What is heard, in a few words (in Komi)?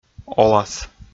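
"олас", Komi, male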